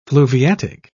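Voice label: "fluviatic", British English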